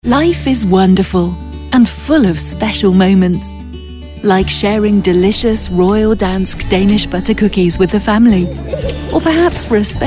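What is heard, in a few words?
British commercial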